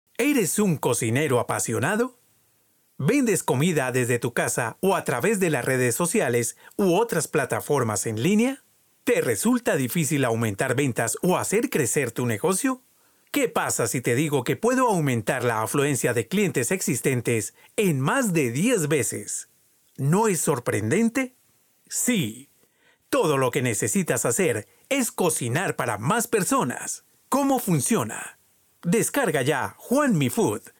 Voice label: Spanish (LA), Male